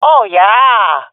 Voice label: round winning, swat fem; Counter Strike Model Pack; CS:GO